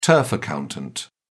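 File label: "turf accountant", British English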